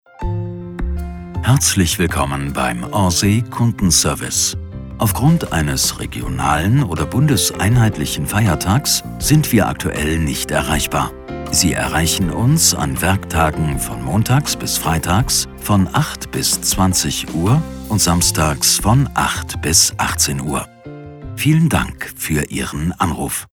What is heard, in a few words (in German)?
Ansage; Orsay